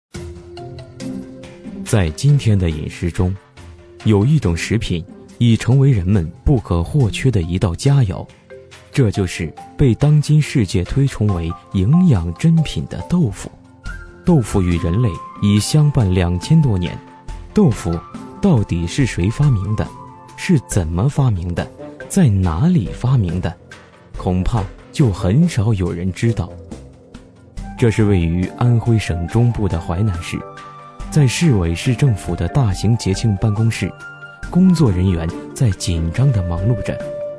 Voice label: 男声 纪录片 美食豆腐文化节

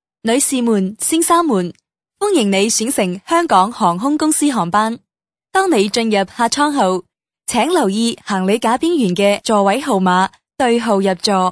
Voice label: 女声 粤语 航空广播

